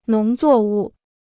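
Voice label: "农作物", Chinese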